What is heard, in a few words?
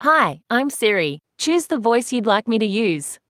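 Australian English; iOS 26 Sounds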